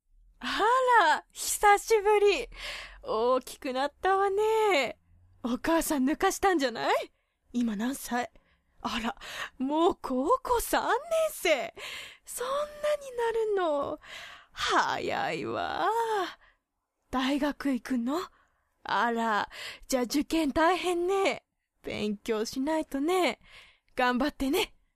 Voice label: woman; 大人; 近所のおばさん